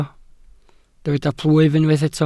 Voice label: "plwyf", Welsh